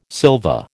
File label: "silva", American